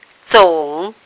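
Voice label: "zong3", Mandarin Chinese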